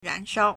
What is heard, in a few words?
"ran2 shao1", Chinese